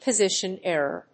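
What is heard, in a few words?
"POSITION ERROR", English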